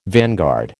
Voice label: "vanguard", American

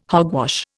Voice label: "hogwash", Indian

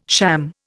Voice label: "sham", Indian

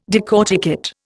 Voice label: "decorticate", Indian

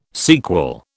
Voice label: "sequel", British

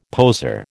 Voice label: "poser", American

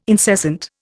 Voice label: "incessant", Indian

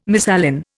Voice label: "misalign", Indian

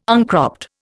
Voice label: "uncropped", Indian

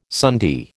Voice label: "sundae", American

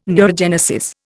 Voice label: "neurogenesis", Indian

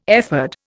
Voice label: "ephod", Indian

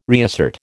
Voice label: "reassert", American

